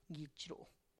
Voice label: "gitx ru", mustache, tlacochahuaya

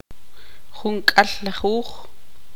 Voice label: tzutujil; treinta

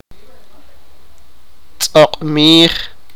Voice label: sipakapense

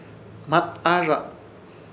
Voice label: "Hmataahrrrza" palma de la mano, Ishir Chamacoco

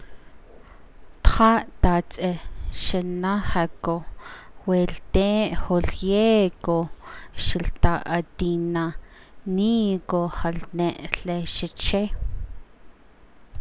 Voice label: navajo adverb; As my grandfather tells it he was about three ye

